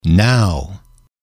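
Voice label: "now", English, male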